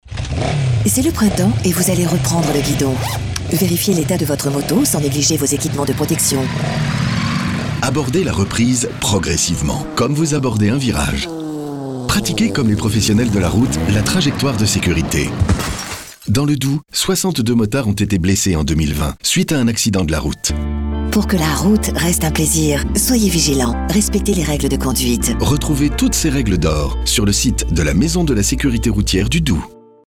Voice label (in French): spot radio